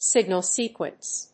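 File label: "signal sequence", English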